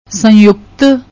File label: "sanyukt", Punjabi